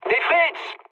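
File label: French enemy detected mood med; War Thunder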